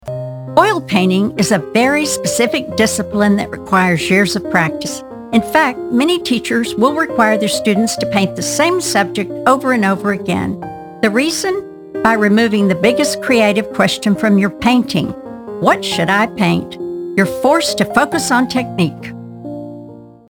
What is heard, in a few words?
Narration; Oil Painting